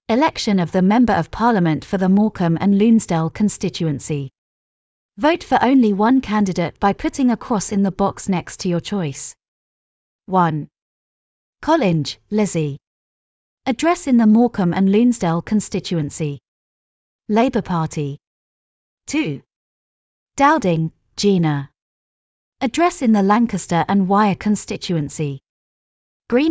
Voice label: female